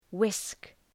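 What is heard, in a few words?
"whisk", English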